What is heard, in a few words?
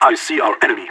radio enemy spotted; player vo leet